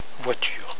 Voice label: "voiture", french; la/une voiture

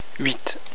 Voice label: "huit", french

